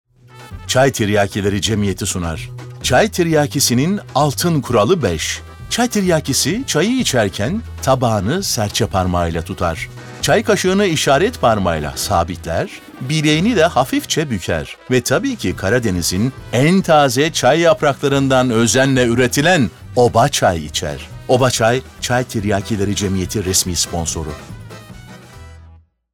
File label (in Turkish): Komik